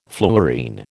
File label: "fluorine", American